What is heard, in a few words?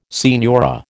"signora", American